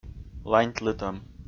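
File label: "ланьтлытӧм", Komi, male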